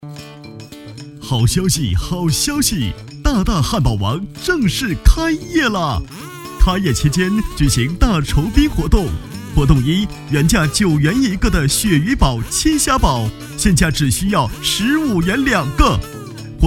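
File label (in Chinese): 促销广告儿童; 汉堡王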